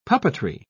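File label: "puppetry", British English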